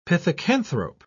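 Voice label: "pithecanthrope", British English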